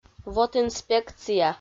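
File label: "вотинспекция", Komi, female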